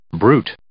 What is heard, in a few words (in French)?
"bruit", French